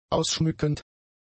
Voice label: "ausschmückend", German; adjectives

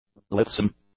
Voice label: "lithesome", english; adjectives

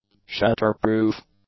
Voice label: "shatter-proof", English; adjectives

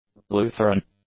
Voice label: "Lutheran", english; adjectives

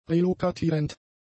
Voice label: "relokatierend", German; adjectives

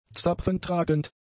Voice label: "zapfentragend", German; adjectives

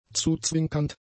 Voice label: "zuzwinkernd", German; adjectives

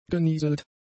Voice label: "genieselt", German; adjectives

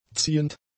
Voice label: "ziehend", German; adjectives

